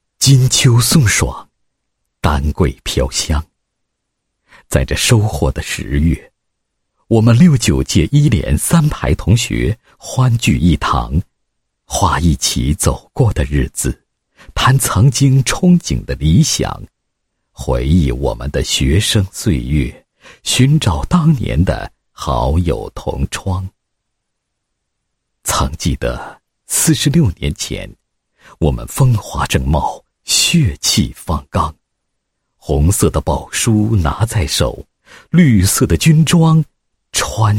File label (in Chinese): 男 散文诗歌 同学毕业46年聚会诗歌, 配音案例